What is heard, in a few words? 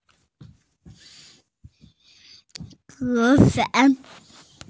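"mo fang" Chinese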